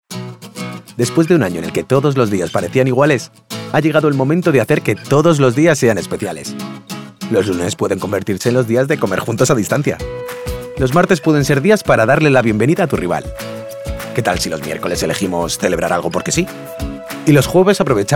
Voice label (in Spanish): generica publicidad